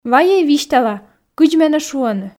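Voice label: "вайӧй висьтала кыдз менӧ шуӧны", Komi, female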